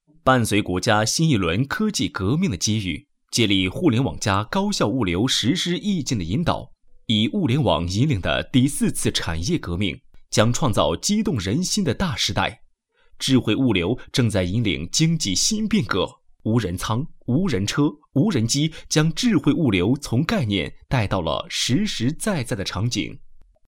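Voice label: Mandarin Chinese, male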